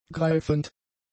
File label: "greifend", German; adjectives